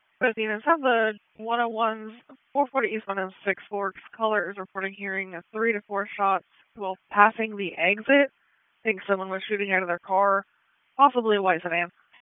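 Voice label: Police Southwest District Disp, radio; Raleigh; wake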